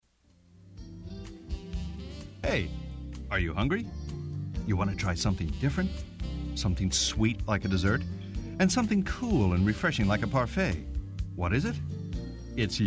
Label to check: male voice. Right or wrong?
Right